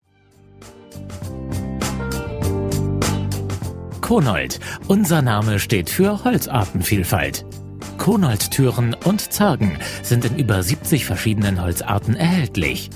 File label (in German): Werbespot; Konold